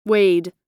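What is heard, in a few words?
"weighed", US English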